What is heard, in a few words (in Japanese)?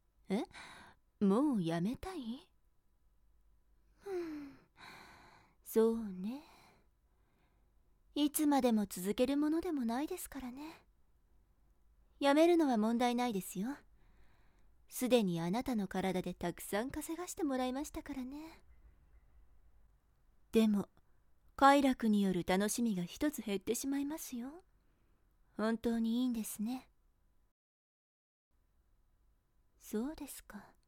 退店する（完全解除・単体で聞く用）, 催眠音声; 【女体化】おち〇ぽ中毒の風俗嬢に、堕ちたボク